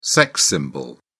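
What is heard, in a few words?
"sex symbol", British English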